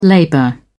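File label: "labor", British English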